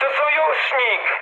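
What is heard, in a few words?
player friendly fire, mood med, Polish speech; War Thunder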